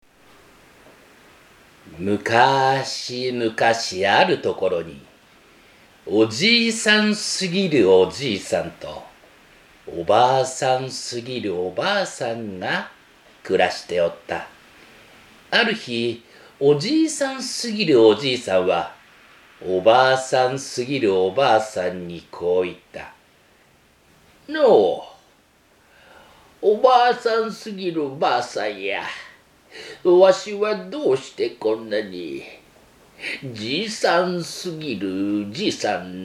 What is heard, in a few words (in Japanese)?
ナンセンス昔話